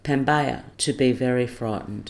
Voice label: "pambaya" to be very frightened

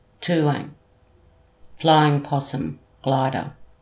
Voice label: "tuwang" flying possum glider

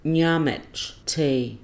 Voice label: "ngamety" tea

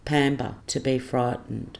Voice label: "pamba" to be frightened

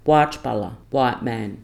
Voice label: "watypala" white man

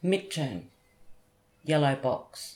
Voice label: "mityern" yellow box

